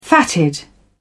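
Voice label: "fatted", British English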